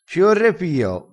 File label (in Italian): "ci orre pio", crespinese